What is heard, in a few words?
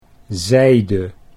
"zijde", Dutch